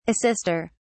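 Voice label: "assister", British English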